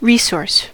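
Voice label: "resource", US English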